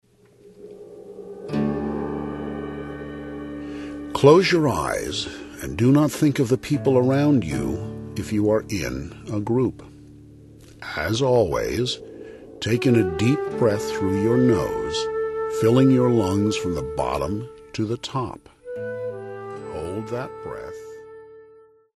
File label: Adult mantra relaxation; Power Nap Kit